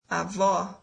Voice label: "grandmother", brazilian